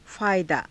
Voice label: "faayadaa", Hindi

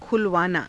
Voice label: "khulavaanaa", Hindi

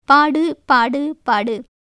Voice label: Tamil

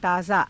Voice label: "taazaa", Hindi

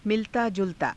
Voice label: "milataa julataa", Hindi

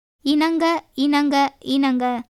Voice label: Tamil

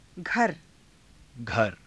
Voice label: "ghara", hindi

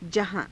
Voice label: "jahaa~", Hindi

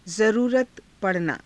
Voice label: "zaruurata pa ranaa", hindi

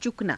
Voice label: "cukanaa", Hindi

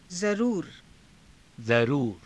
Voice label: "zaruura", Hindi

